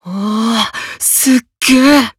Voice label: Emo Surprise

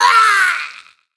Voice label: Troll VO Pain; Skylanders Cloud Patrol; Arcade, iOS, Mobile

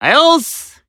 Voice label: Emo Greet

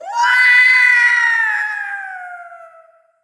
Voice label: Troll VO Fall

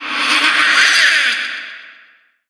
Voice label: Troll Jester Taunt; Skylanders Cloud Patrol; Arcade, iOS, Mobile

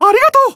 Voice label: Emo Glad; Disney Star Smash; Android, iOS, Mobile

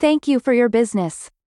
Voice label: Delivery Drone VO Common

